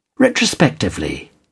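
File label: "retrospectively", British English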